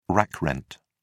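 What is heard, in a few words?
"rack rent", British English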